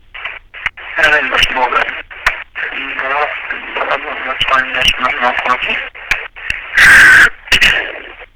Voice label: message left on answering service